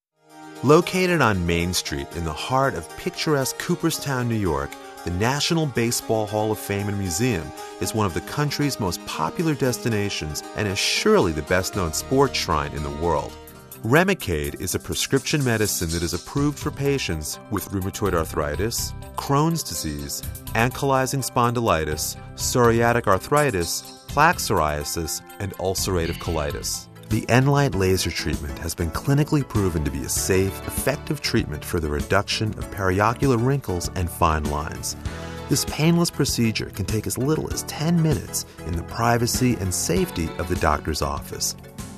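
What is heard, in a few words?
narration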